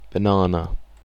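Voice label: "banana", British English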